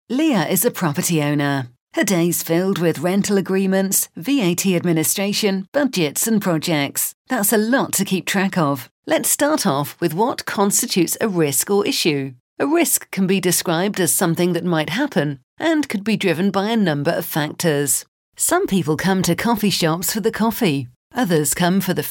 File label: corporate